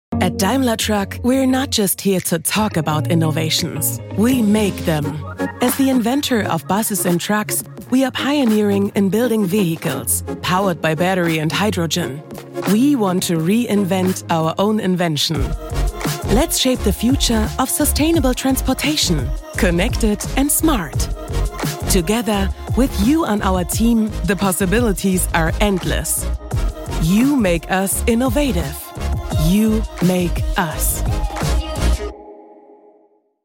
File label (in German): Werbung Englisch; DaimlerTruck